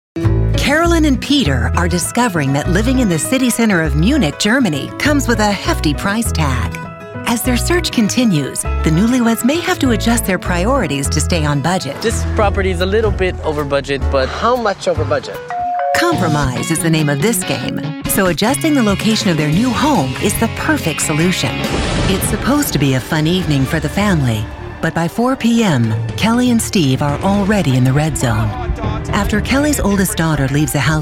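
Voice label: English US Narrative; GoLocalise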